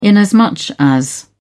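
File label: "inasmuch as", British English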